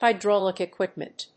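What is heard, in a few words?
"hydraulic equipment", English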